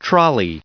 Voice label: "trolley" en-us recite

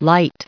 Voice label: "light", en-us

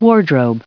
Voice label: "wardrobe", en-us, recite

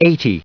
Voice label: "eighty", en-us, recite

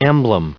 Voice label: "emblem", en-us, recite

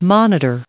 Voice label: "monitor", en-us

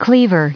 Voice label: cleaver, en-us; Ustensile

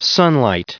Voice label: "sunlight", en-us

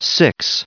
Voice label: "six", en-us

